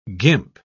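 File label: "guimpe", British English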